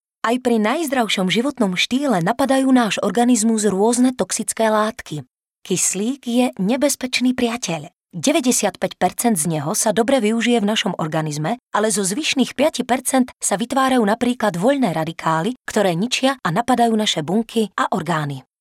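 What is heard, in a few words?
Female Slovak documentary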